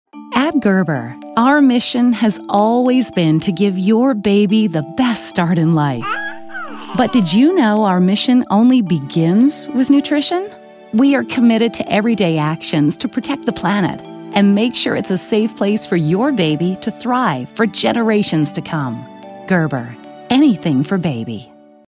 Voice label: commercial baby food mom baby mother maternal soccer mom; Gerber; nurturing